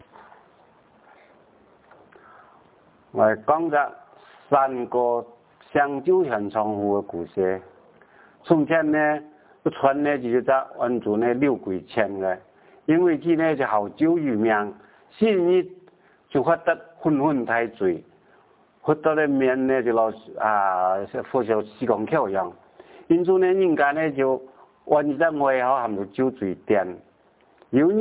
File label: heyuan, hakka accent